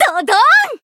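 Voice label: Voice finish, Japanese; bivette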